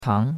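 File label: "tang2", Mandarin Chinese; Cours-de-Chinois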